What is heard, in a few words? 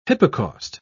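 "hypocaust", British English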